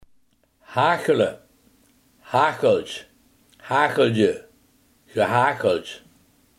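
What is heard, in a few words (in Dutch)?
hagelen, Limburgse gesproken woordenboeken; hagelen, haachele (haacheltj, haacheldje, gehaacheldj)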